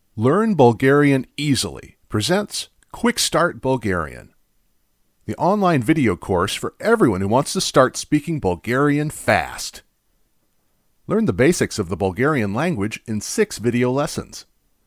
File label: Bulgarian segment